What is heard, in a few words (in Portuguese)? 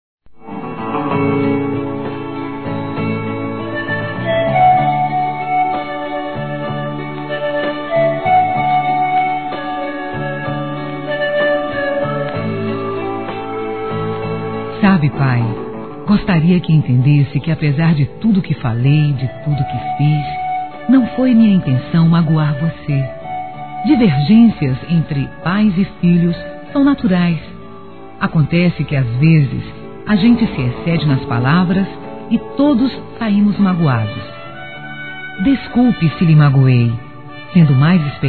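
desculpa meu pai, Telemensagens, female; Desculpas; Telemensagens 2017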